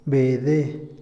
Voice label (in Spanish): "número", Otomí, hombre; Moderno; INALI